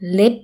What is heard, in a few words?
fingernail, Thai